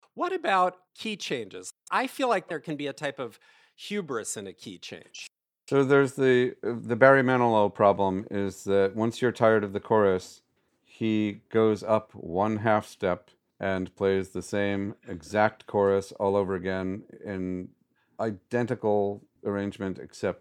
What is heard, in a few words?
Trailer